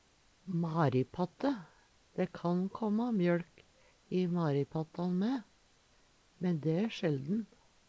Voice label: "maripatte", Numedalsmål; Substantiv hankjønn; Veggli

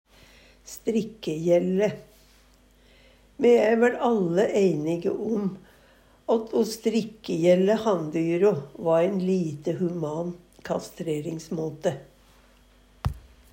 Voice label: strikkejelle, Numedalsmål

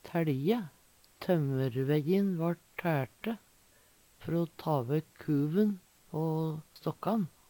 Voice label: taelje, Numedalsmål; Verb; Handverk (metall, tre, lær)

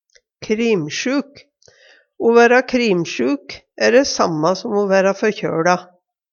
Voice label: krimsjuk, numedalsmal

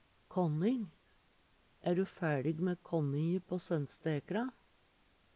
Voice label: kanning, Numedalsmål

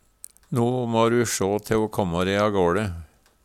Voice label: "agale", Numedalsmål

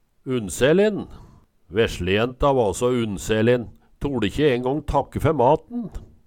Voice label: unnselin, Numedalsmål

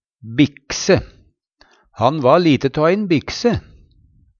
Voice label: bikse, Numedalsmål; Han va lite tå ein bikse.

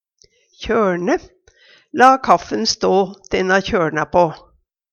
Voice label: kjoLne pa, Numedalsmål

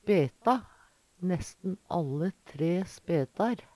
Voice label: speta, Numedalsmål; Verb; Planteriket

